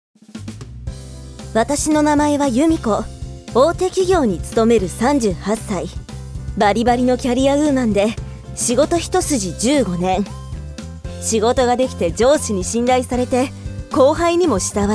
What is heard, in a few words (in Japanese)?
漫画動画ナレーション キャリアウーマン